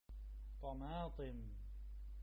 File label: Tomatoes in arabic